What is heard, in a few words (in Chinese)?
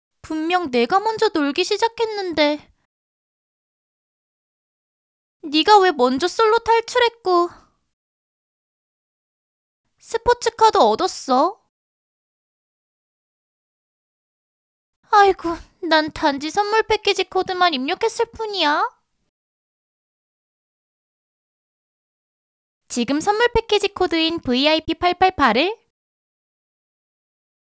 角色 可爱